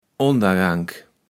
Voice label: "Ondergank", Platt

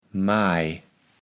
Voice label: "Maj", kaevels platt

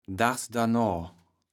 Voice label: "dagsdornoa", kaevels platt

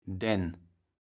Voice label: "dän", kaevels platt

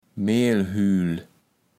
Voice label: "Mehlhüül", kaevels platt

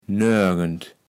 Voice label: "noergend", kaevels platt

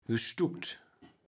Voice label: "gestuckt", Platt

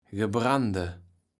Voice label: "Gebrande", Platt

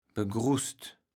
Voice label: "begrusst", kaevels platt

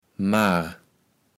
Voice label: "mar", kaevels platt

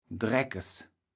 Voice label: "Dreckes", kaevels platt

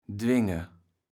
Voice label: "dwinge", kaevels platt

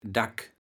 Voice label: "Dack", kaevels platt

